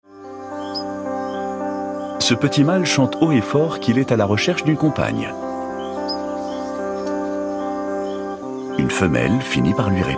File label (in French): Doc Animalier